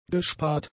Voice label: "gespart", German; adjectives